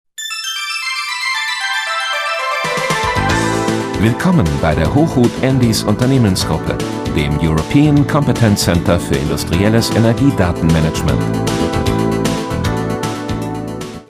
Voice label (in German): Phone, Ansagen